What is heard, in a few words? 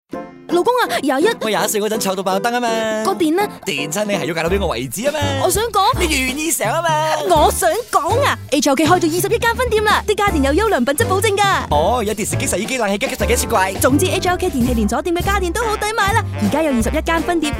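Cantonese Character Wife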